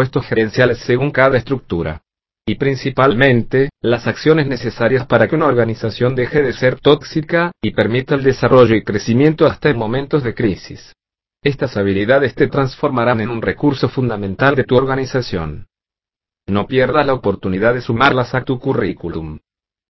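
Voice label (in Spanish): Voz gestion y motivacion del ambiente laboral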